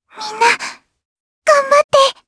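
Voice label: Vox Skill, Japanese; Lavril; kings raid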